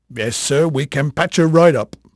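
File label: English male, voice; Pirates Of The Caribbean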